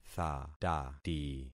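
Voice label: "thatati", concatenated syllables; Affixing experiment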